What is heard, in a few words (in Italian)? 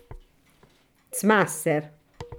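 "coltello", Italian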